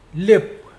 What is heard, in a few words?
"hole", Mokilese